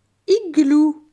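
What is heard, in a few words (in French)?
"Igloo", French; phonetique; les voyelles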